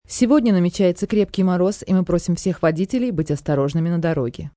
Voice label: Freezing, Broadcast, weather, female weather report in Russian, freezing outside; drivers be careful; news